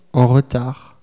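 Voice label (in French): "en retard", French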